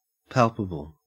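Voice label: "palpable", Australian English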